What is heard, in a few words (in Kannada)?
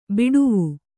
"ಬಾಡವ", Kannada